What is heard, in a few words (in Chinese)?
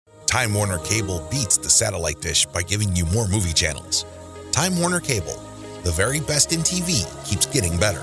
有线电视广告